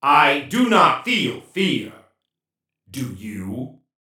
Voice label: Warrior Taunt; Realm Royale